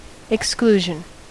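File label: "exclusion", American English; pronunciations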